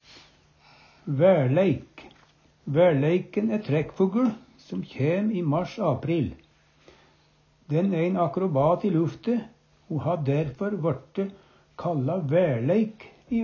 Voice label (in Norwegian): "vaerleik", Numedalsmål; Uvdal